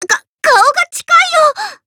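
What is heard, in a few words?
dialogue, Japanese; GG elfeldt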